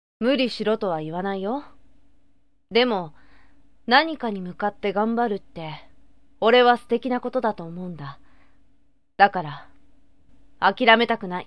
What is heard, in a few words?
sample boy